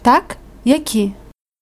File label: dialogue, Ukrainian